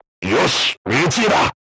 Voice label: voice message direct hit, crew tanks japanese